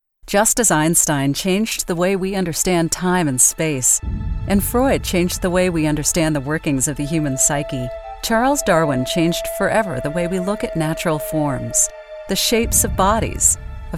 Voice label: US documentaries